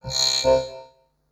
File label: VOICEFX TEKNO 140, Techno, Voice; eJay Sound Collection 2